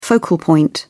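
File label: "focal point", British English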